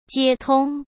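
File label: "接通", Mandarin Chinese; chinese flashcards; hsk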